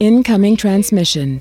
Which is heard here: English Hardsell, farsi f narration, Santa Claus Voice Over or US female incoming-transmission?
US female incoming-transmission